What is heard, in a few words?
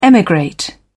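"emigrate", British English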